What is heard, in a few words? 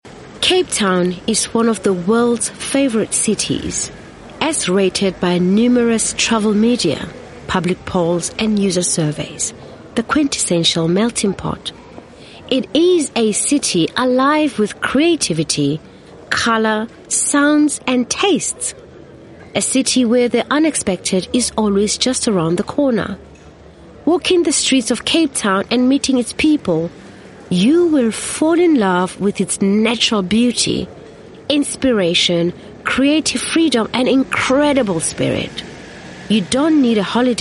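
African English, female, docu, accent of africa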